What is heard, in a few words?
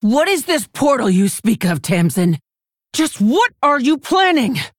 Female Orc; Rokara